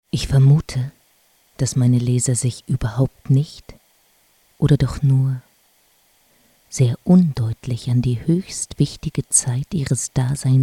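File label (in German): geschichte, Sprecherin deutsch; Telefonansagen; weiblich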